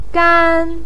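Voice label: "gan" first tone, chinese, voice pinyin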